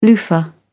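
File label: "loofah", British English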